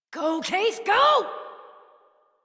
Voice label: Horse Sprint Adult; Warframe; Duviri

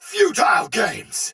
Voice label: Sight Lost Shout Soldier Sword Agent Male, Battle; Dialog; Barks; Melee; Sinecure

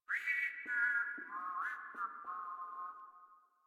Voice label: Patrol, Grineer Male; Warframe

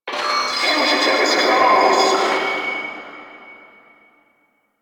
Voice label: Vocal Quest Loudspeaker, Town Escape; Quests; Duviri; Ambience

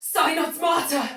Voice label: See Ally Die, Soldier Female, Battle; Dialog; Barks; Sinecure

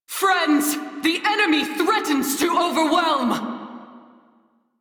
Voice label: Crew Near Death, Female; Dialog; en; Warframe; Railjack Crew; New Loka

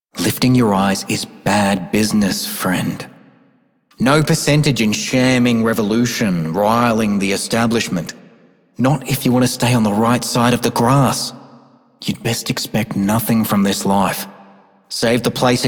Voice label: Male Scum, Male Two, en; Dialog; Warframe; Solaris Venus

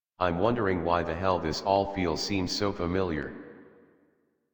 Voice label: Adult, en, Dialog

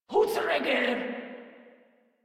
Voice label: Player Spotted Grineer Female, Barks, Battle

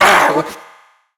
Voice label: Treasure Box Pain; Warframe

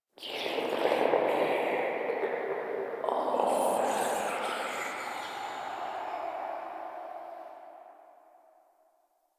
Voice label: Whispers; Inf Hub Lab Many Lights; Warframe; Ambience; Entrati

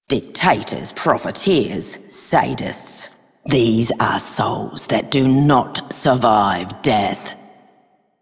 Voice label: Female Rebel, Female Two, en; Dialog; Warframe; Solaris Venus

